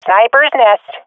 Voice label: snipers nest, swat fem; player vo; Counter Strike Model Pack; CS:GO